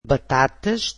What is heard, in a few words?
portuguese potatoes